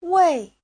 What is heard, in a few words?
"wei4", Chinese